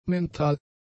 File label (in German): "mental", German; adjectives